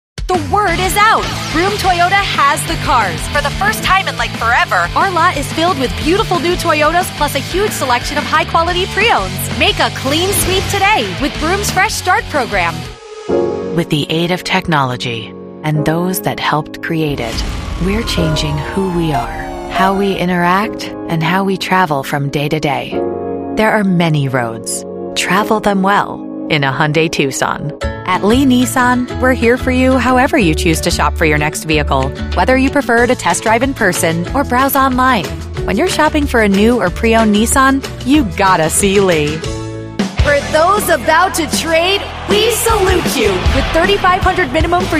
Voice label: english american automotive